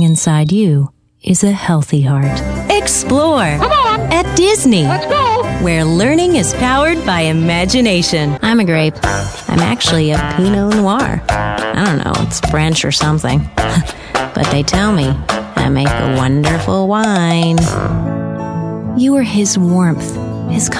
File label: women commercial